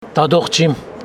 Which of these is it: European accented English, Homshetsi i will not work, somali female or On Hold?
Homshetsi i will not work